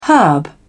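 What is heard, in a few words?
"herb", British English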